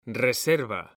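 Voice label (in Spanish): "reserva", male; mekanta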